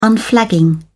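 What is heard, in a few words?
"unflagging", English, uk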